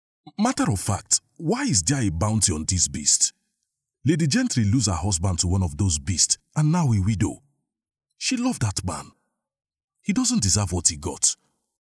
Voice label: english african video games